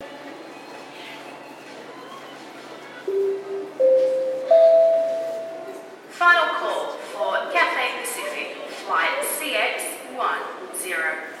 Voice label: Sydney Airport Final calls